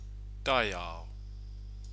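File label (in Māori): "taiao", Māori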